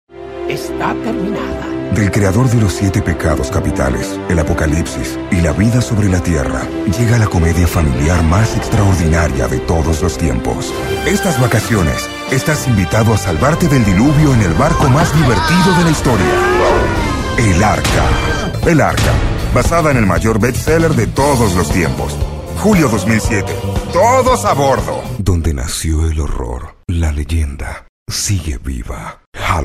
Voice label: trailers documental neutro

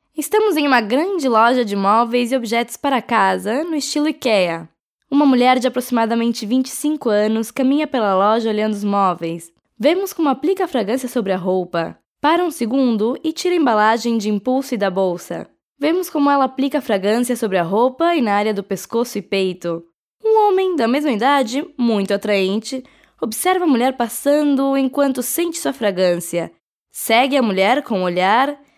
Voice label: Mujeres Portugues; Casting Impulse

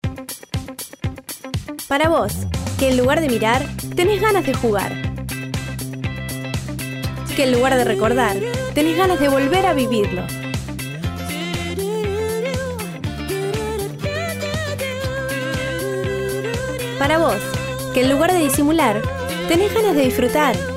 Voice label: Mujeres Locutoras; Casting Impulse

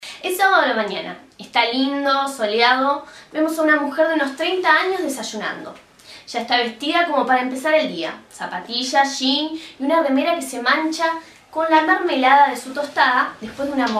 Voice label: Mujeres; Casting Impulse

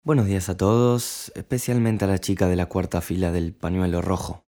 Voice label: Linea, Espanol

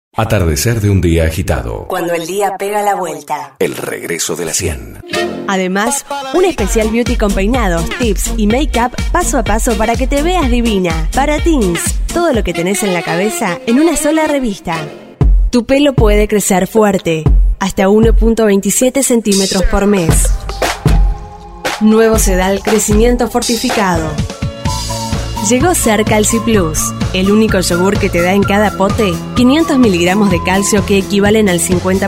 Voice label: Mujeres, Locutoras; Casting Impulse

